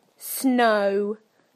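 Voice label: "snow", British English; PictureDictionary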